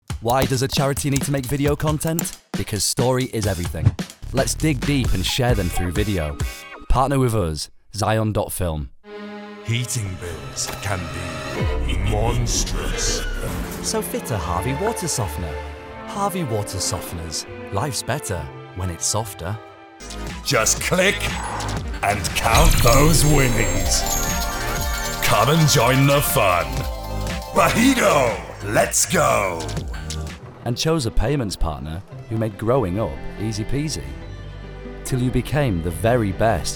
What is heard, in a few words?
Commercial